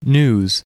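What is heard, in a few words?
"news", English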